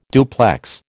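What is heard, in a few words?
"duplex", American English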